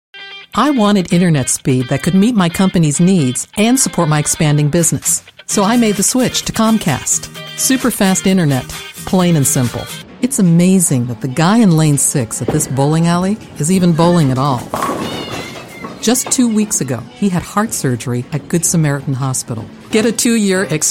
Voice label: US English Commercial